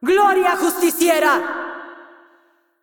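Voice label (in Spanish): assist, Mexican Spanish; es MX; VO; Kayle; bloom minigame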